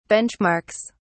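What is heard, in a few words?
"benchmarks", English, British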